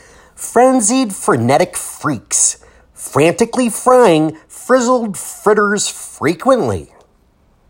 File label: FR Twister